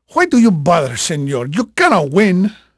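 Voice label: Spanish male, voice; Pirates Of The Caribbean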